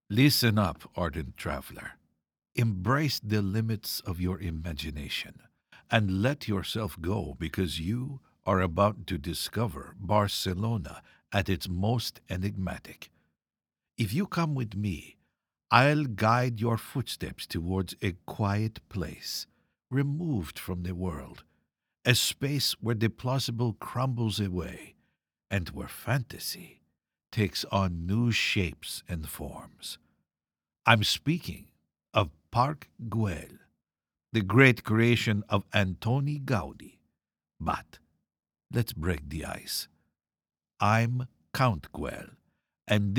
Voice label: english canadian audio guides

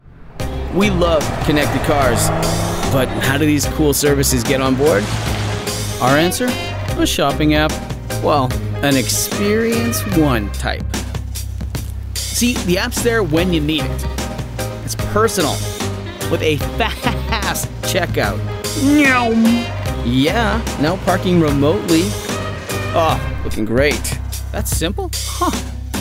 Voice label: english canadian automotive

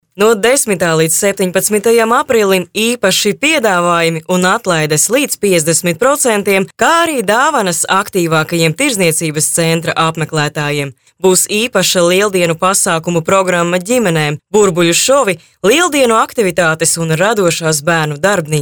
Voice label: latvian commercial

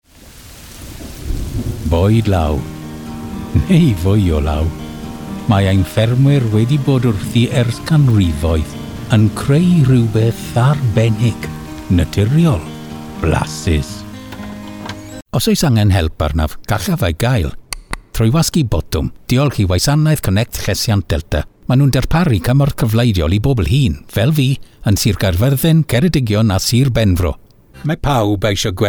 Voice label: welsh commercial; actor de voz profesional y galardonado

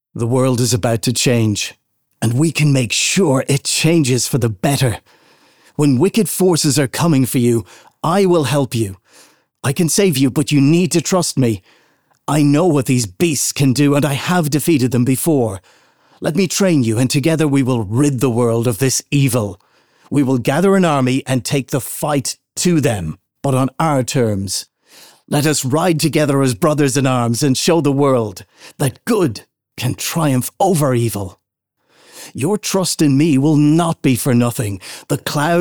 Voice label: english irish animation, irish voice actors; Anglais (Irlandais); Anglais (britannique)

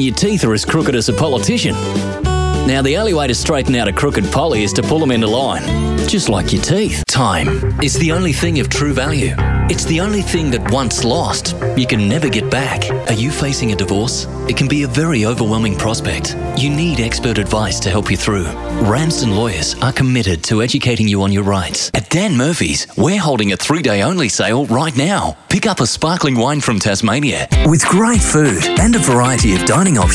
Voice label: english australian tv shows

